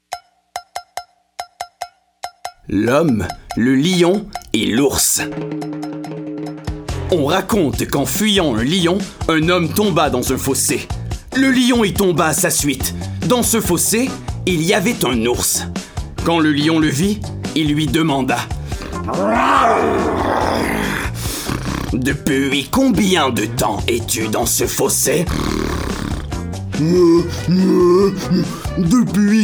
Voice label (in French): imitation personnage humour livre audio fr normatif; l homme le lion et l ours; amical